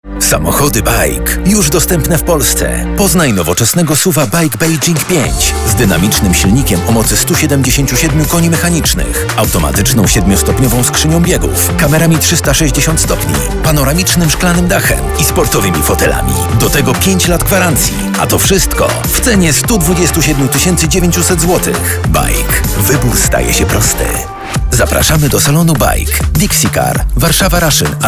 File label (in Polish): reklama; baic